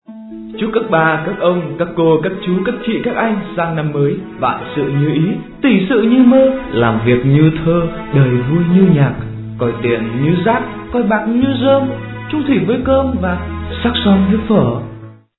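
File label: Tet new year greeting baby voice; chuc tet nam moi giong baby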